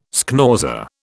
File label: "schnauzer", British